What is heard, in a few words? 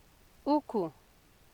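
"uku", Nigerian Pidgin, female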